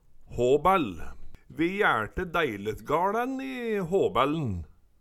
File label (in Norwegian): habaell, Numedalsmål